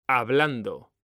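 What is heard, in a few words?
"hablando", Spanish, male; mekanta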